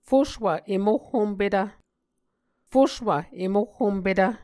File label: "fuswvemohhompetv", muscogee; Mvskoke Words with Repeated Consonants; A Dictionary of Creek/Muskogee; SIL International